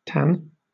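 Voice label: "tan", British English; pronunciation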